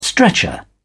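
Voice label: "stretcher", English, British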